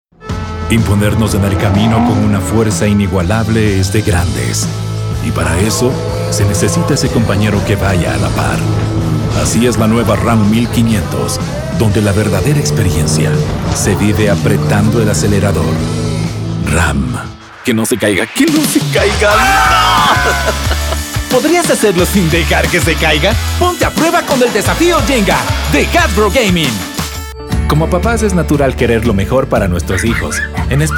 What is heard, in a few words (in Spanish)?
DEMO COMERCIAL